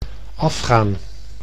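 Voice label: "afgaan", Dutch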